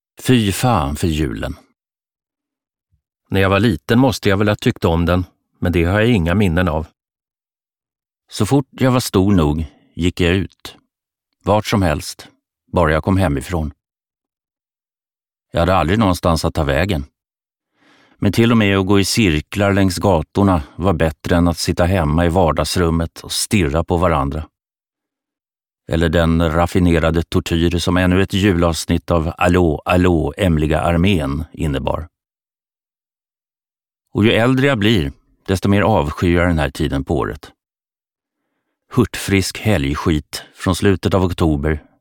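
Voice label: Swedish audiobook male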